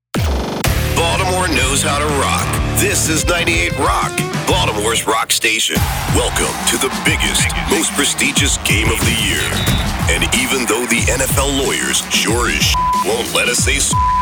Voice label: imaging